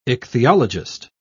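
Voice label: "ichthyologist", British English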